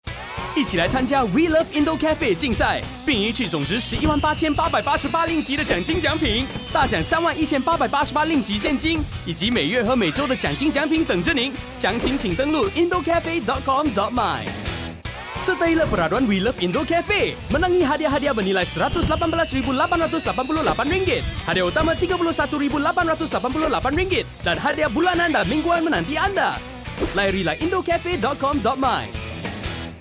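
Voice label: ENGLISH MANDARIN Mono; IndoCafe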